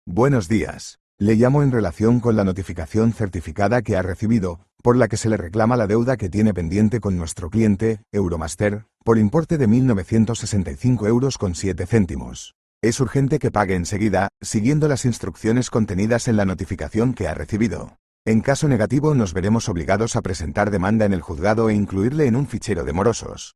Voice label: audio call